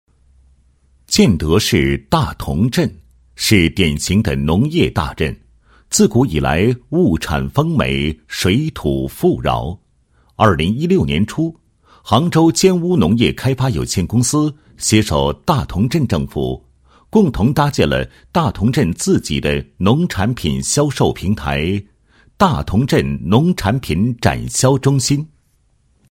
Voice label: 男 产品介绍, 配音; 大同农产品介绍

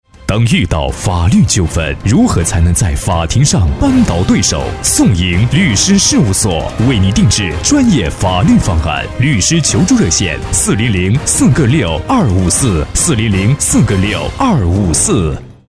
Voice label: 大气 庄严, 律师事务所, 国语男声配音; 大气沉稳; 诵盈律师事务所

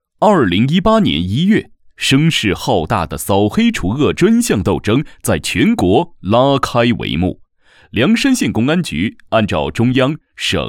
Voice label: 沉稳厚重 政府公检法类; 扫黑除恶

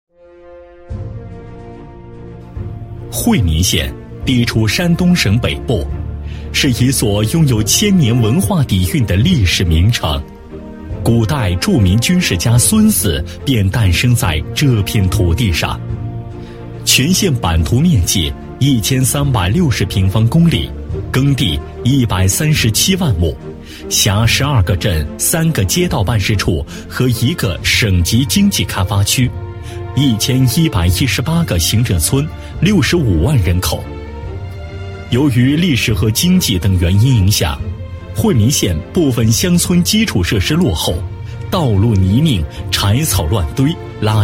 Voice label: 男 专题 宣传介绍, 国语男声配音 大气浑厚; 惠民县

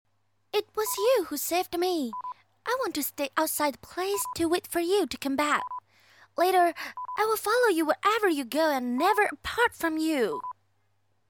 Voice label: 女 游戏动漫 英文台词

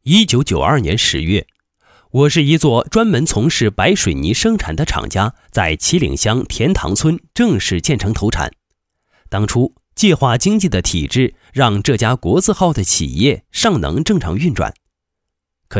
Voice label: 男 特种水泥, 国语男声配音 解说; 便宜解说; 万兴特种水泥有限公司

